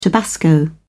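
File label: "tabasco", British English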